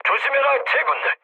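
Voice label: Korean near waypoint mood medium; War Thunder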